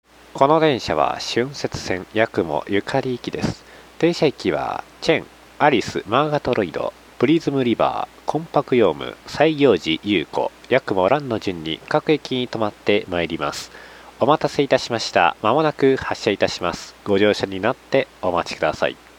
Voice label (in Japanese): アナウンス